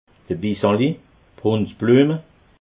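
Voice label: "bissali", Alsatian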